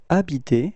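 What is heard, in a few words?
"habiter", French; frans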